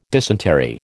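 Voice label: "dysentery", American English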